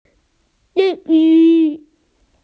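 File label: "goldfish", Mandarin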